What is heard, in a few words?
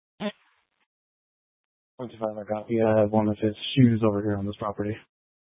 Sheriffs Office, scanner